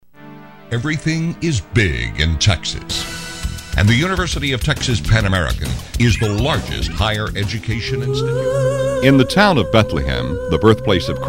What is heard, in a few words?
Narration, voiceover